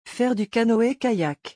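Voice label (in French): "faire du canoë kayak", French; vocabulaire; le lexique des vacances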